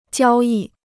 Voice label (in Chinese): "交易", Chinese